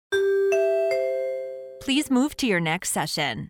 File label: nbc chimes fast please move to your next session